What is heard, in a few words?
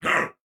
Attack, Corpus Venus Male